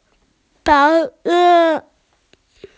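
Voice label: "white", Mandarin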